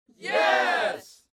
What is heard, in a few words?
Human Crowd "Yes"